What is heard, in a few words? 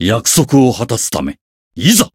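Voice variable chant, Japanese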